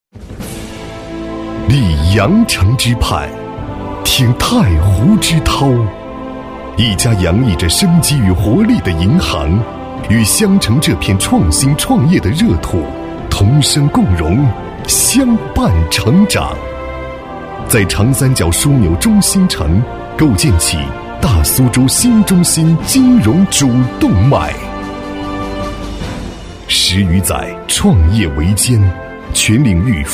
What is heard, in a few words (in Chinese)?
男 企业宣传片 浑厚大气; 高端品质; 大气浑厚; 中国农业银行